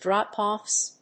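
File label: "drop-offs", English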